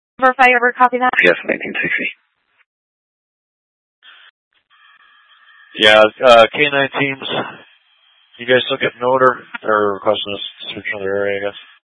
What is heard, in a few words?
Mutual Aid-Intersystem Interop B, scanner